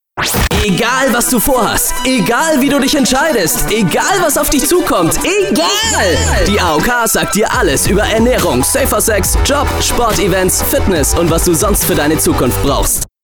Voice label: radio spot; aok; Muttersprache Deutsch